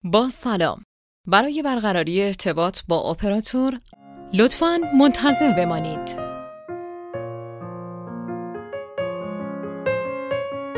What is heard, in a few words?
ivr voice wait